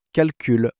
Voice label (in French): "calcul", learn French